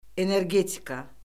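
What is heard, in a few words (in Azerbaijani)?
"energetika", Azerbaijani; Orfoqrafiya Lüğəti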